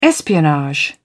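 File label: "espionage", British English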